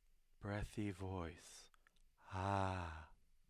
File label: breathy voice